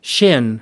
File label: "shin", us